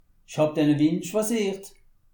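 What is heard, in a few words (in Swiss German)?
"schwaziert", Alsatian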